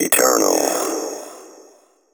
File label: techno male 140; Vocals